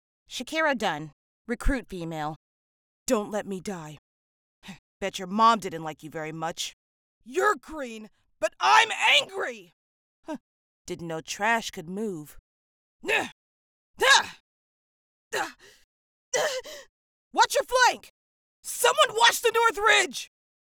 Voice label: Recruit Female